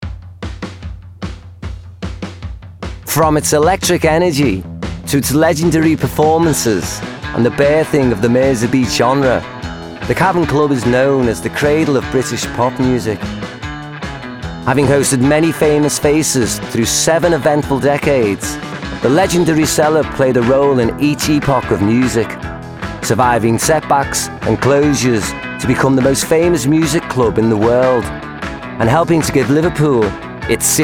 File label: Doco; Cavern Club